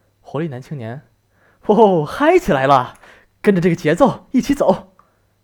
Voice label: 活力青年中文

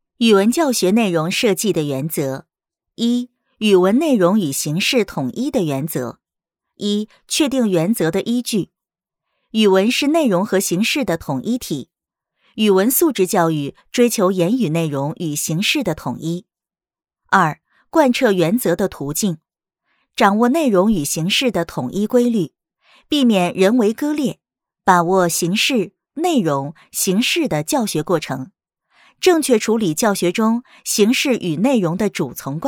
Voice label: 课件解说 积极 知性

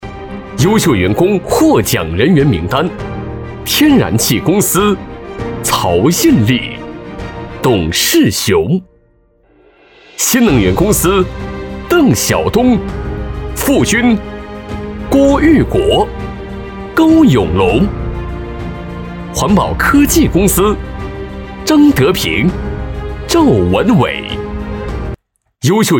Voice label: 男颁奖

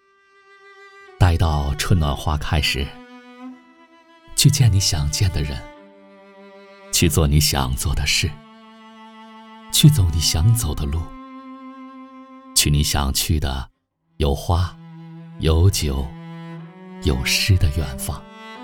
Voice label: 散文播读; 散文播读--窗 为 界

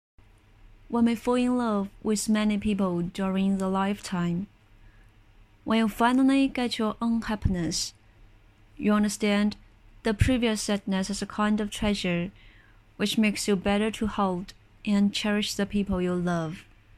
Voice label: 英文类

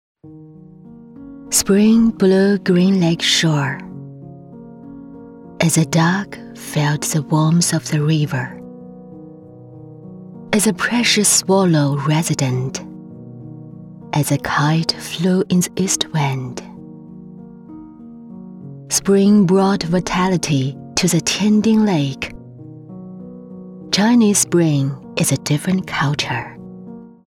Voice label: 英文抒情广告

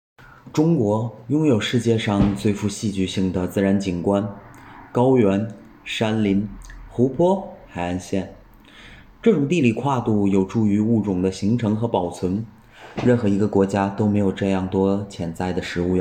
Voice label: 旁白试音

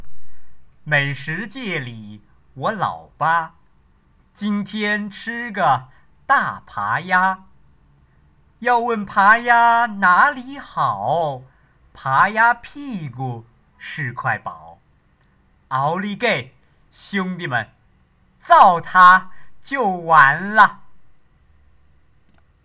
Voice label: 普通话试音

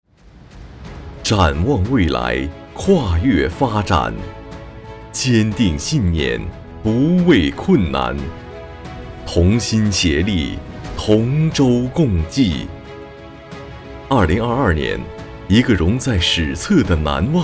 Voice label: 大气

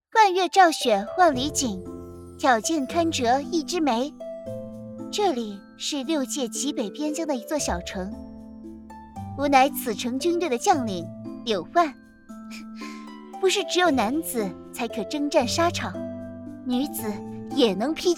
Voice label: 古风少女

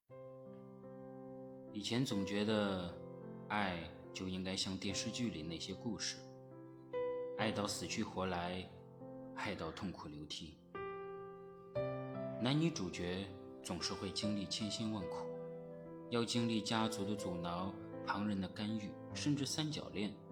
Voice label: 情感电台

